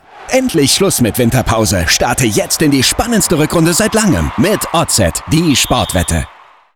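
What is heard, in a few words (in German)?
Winterschlaf, Spots, Männer; Oddset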